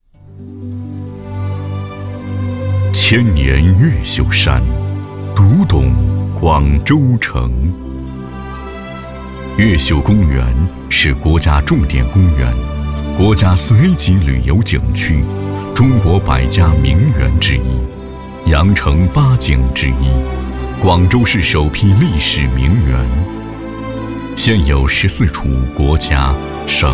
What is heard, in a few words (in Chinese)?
男 专题片; 千年越秀山